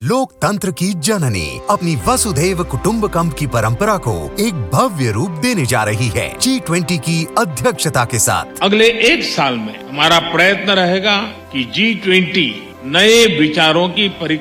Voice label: G20 Radio Hindi